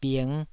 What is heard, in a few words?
"bing5", taiwanese syllable